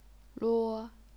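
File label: "luo", first tone, chinese pinyin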